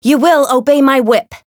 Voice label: Voice variable chant; sherinu